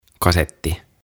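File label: "Kasetti", "Cassette Tape", Finnish, English; OTAVA KONSERNI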